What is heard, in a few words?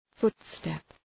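"footstep", English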